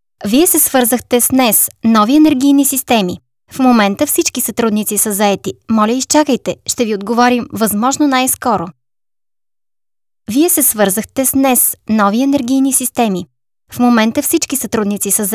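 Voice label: Bulgarian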